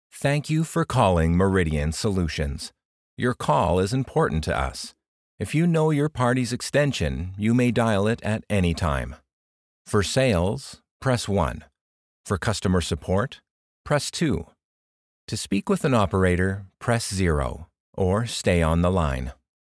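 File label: General Corporate Greeting Polished Friendly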